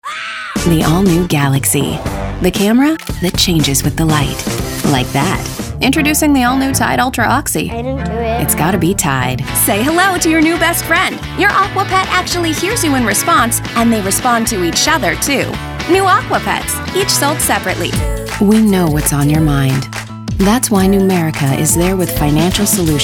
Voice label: Commercial